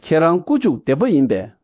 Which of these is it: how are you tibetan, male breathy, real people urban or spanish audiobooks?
how are you tibetan